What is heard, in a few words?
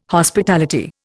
"Hospitality", Indian